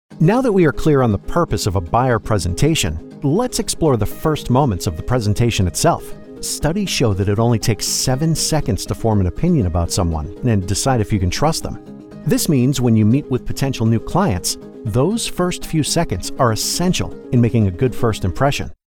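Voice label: e-learning Buyer Presentation, American Male Voice; e-learning Voiceover Demo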